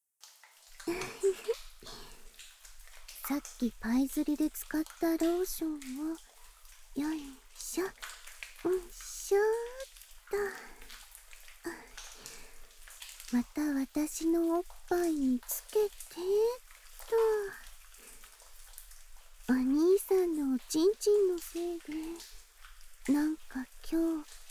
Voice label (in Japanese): ミルク性感マッサージ（粘膜効果音++Ver）; ASMR; 囁き・耳舐め・効果音ブースト差分